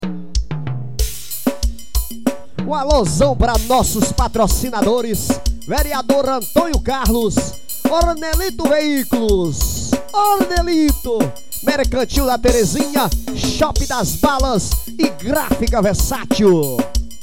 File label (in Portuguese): comercial